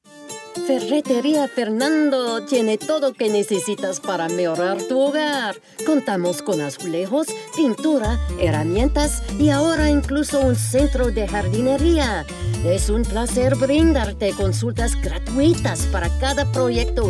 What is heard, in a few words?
Spanish; Ferreteria Fernando